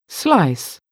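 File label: "slice", English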